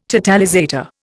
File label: "totalizator", Indian